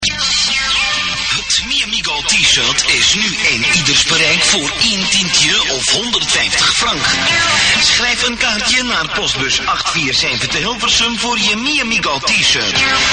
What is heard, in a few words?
commercial